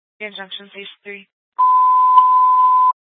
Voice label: Fire Dispatch, scanner